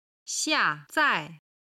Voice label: "xia4zai4", chinese